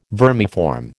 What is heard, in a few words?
"vermiform", American